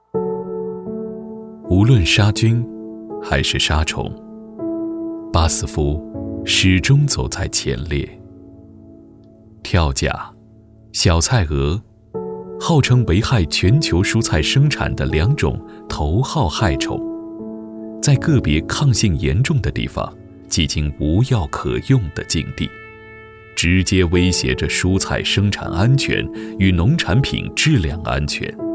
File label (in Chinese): 男 旁白 深沉; 格力高